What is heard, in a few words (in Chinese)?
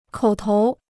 "口头", Chinese